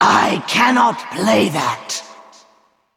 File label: Female Val'kyr ERROR PLAY